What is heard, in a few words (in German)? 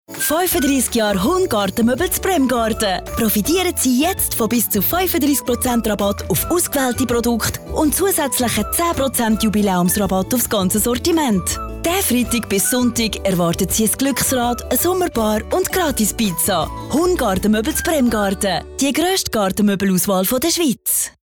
Werbung CH AG